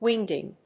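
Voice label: "wingding", English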